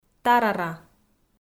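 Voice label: "тарара", Komi, female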